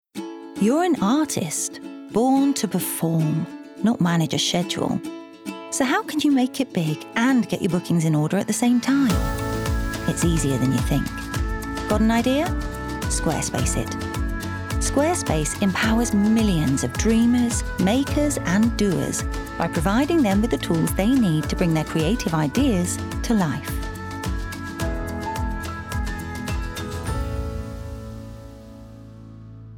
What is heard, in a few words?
Corporate Warm assured; Squarespace